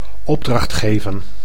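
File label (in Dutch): "opdracht geven", Dutch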